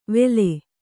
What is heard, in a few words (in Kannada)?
"ವೇಲೆ", Kannada